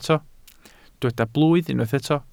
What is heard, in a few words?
"blwydd", welsh